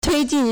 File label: "tui1jin4", Chinese